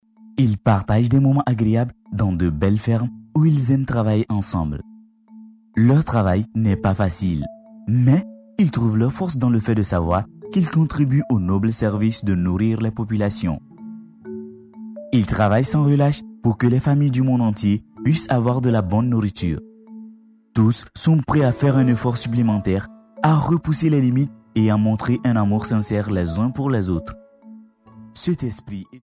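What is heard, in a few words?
African French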